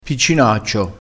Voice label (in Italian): "piccinaccio", crespinese